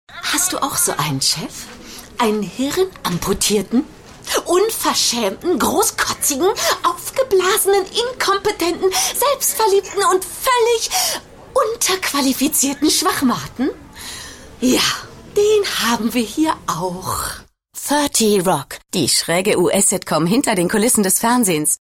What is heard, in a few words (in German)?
Aufgedreht; Fremdtake